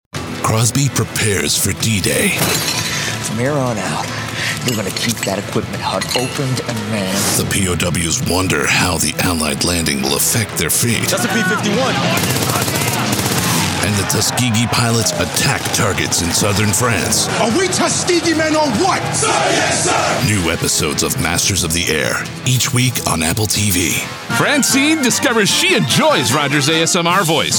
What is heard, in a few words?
Promo